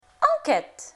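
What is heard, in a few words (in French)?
"Enquête", French; Lingvo; francais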